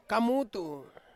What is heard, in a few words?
"kamutu", Ansus; anchor